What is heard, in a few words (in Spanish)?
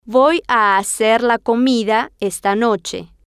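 voy a hacer la comida esta noche, Spanish, female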